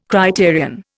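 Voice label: "criterion", Indian English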